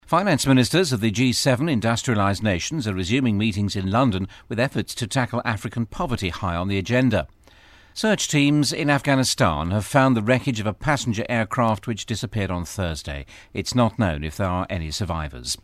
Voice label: news

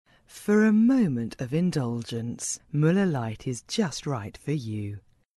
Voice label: sensual

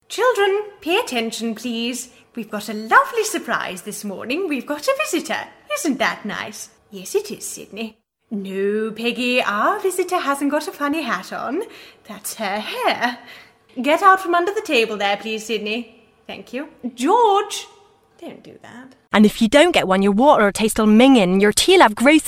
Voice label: character voice

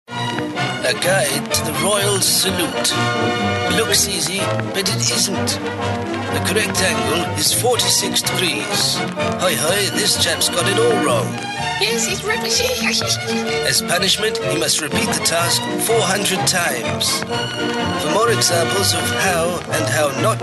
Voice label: posh

